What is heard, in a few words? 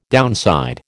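"downside", American English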